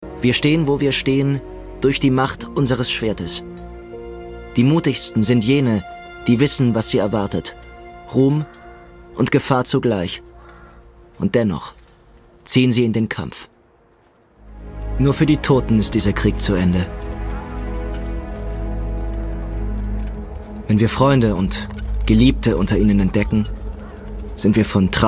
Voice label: VOICE OVER; arte; Liebe und Krieg Schwule Helden der Antik

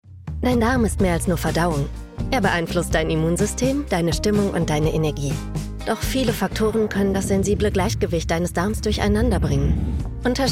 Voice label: Commercial, German; voice; Werbung I Orthomol PRO

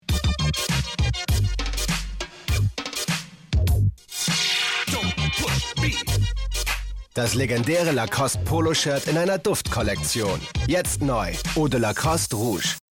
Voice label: tv spot; lacoste rouge

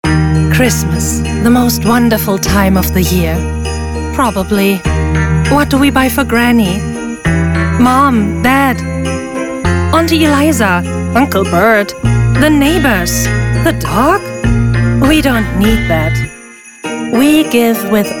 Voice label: Charity Campaign englisch; LOFT VOICES

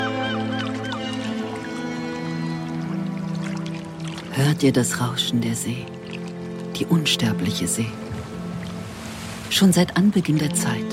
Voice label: Podcast kurz; LOFT VOICES

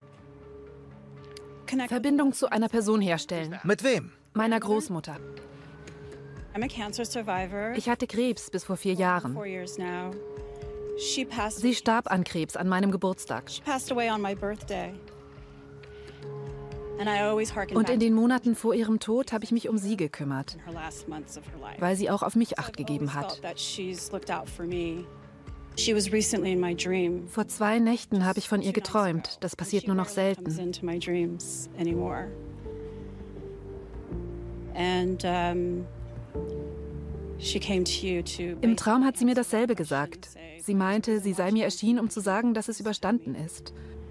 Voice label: Voice Over; Expedition unknown

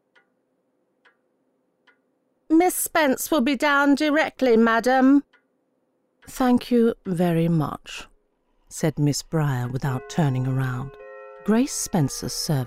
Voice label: british smile

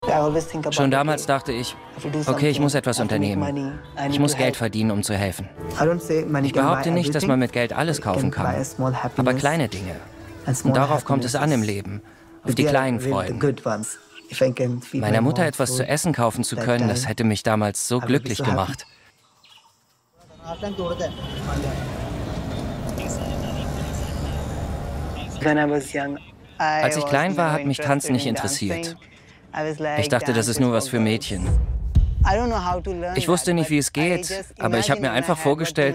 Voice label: Voice Over; Call me Dancer; arte

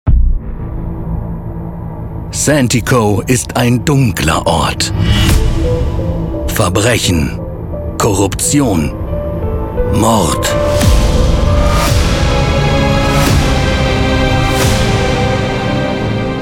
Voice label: Videogametrailer, Commercial (Werbung), German

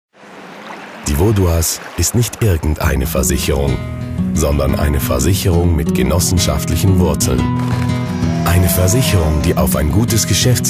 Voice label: tv spot; vaudoise versicherungen; genossenschaft 2014

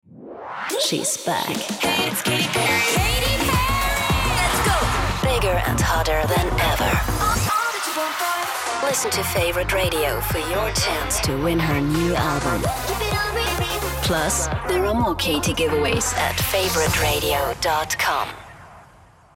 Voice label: radio promo US

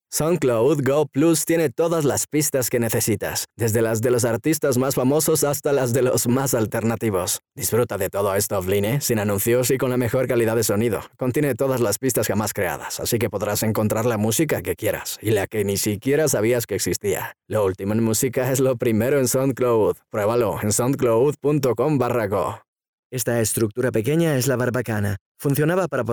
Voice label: Spanish Spain Commercial

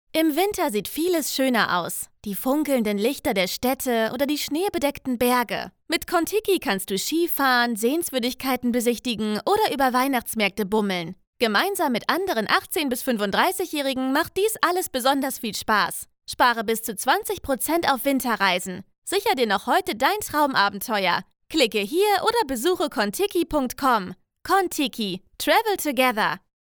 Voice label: Sample Commercial; Contiki

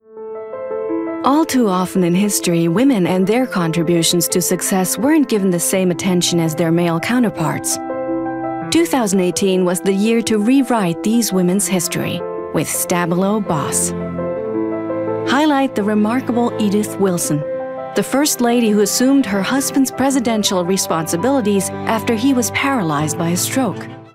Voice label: US Imagefilm; Stabilo

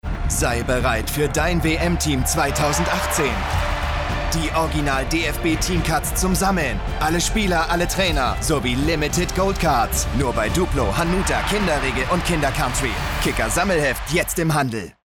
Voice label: TV Commercial; Ferrero; WMCards

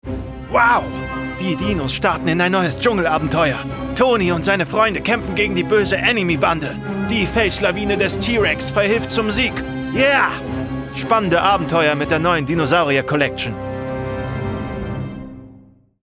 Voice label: Commercial Dinos; LOFT VOICES

